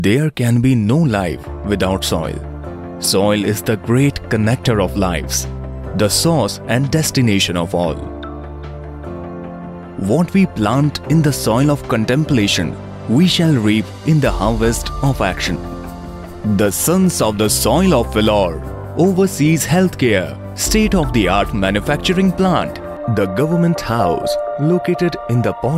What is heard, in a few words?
Corporate AV, English